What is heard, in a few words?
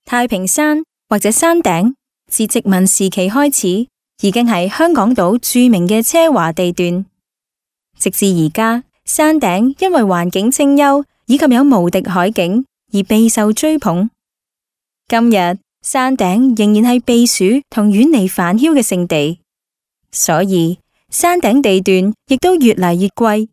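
Cantonese female corporate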